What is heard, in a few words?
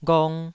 "ghong2", Taiwanese syllable